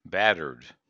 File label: "battered", en us